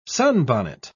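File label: "sunbonnet", English, uk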